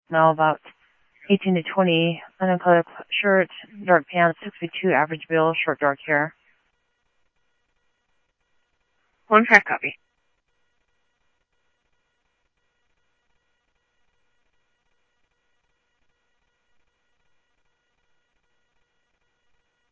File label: Mesa Simulcast, Mesa PD, Police Dep; MESA PD 1 Fiesta; MesaPoliceDep